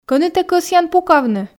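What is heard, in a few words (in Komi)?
кӧні тэ кӧсъян пукавны, Komi, female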